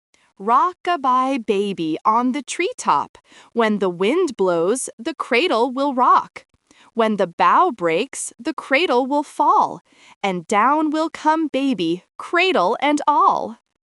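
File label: female US, classic rhymes; Rock a Bye Baby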